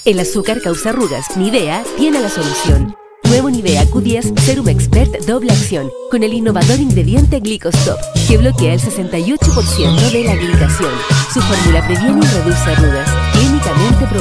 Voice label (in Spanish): neutro, espanol neutro